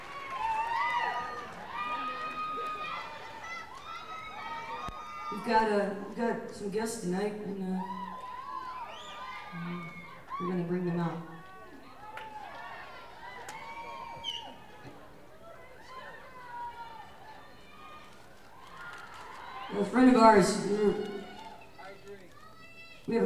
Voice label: talking with crowd